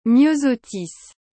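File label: "myosotis", French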